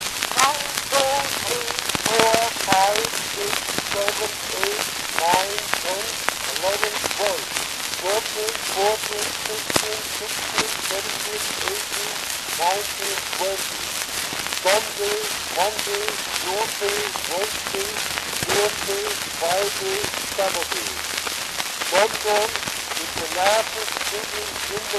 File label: English numbers and days; 5 inch Berliner